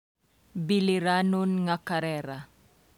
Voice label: Cebuano